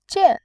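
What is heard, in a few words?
"jian", Mandarin, fourth tone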